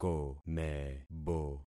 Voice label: "komebo", concat syllables; Affixing experiment

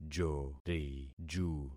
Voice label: "joriju", concat syllables; Affixing experiment

